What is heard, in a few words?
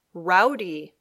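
"rowdy", English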